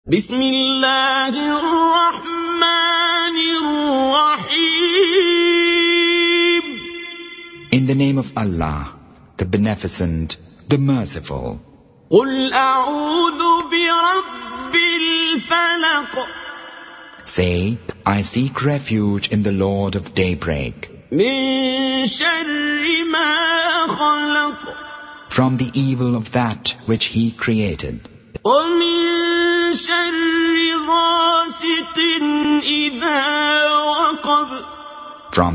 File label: surah falak in english